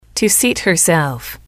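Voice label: "to seat herself", English, female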